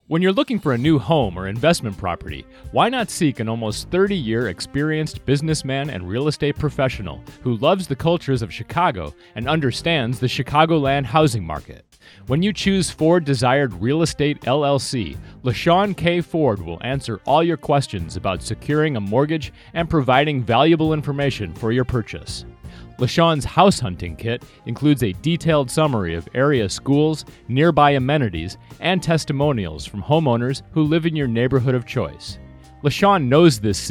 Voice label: Radio Ad; Ford; Ford Desired Real Estate LLC